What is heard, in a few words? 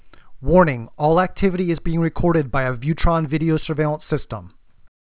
video surveillance recording